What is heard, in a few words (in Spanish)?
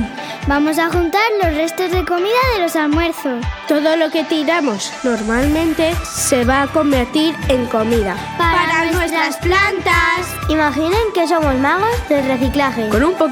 anuncio compost